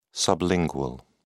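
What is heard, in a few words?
"sublingual", British English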